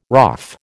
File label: "RAAF", American